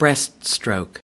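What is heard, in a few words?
"breaststroke", US English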